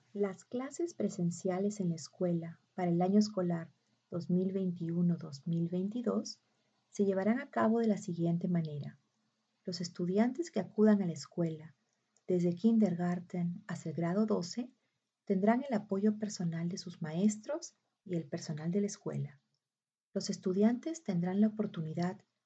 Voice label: Spanish